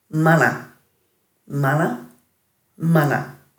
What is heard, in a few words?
"mana", Dhurga